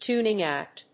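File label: "tuning out", English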